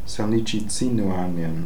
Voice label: "Salnichizinooarnian", pronounciations; Fourth Way; Beelzebub